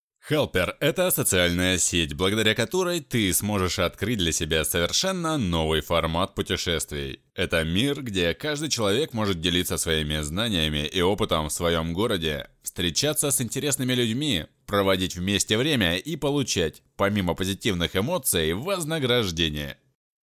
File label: Male, Russian